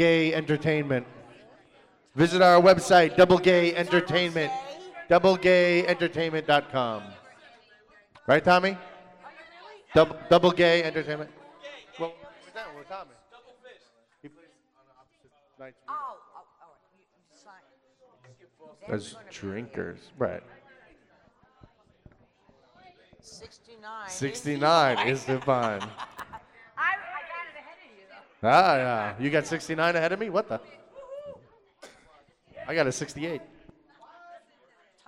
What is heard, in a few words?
Radio Grill Commercial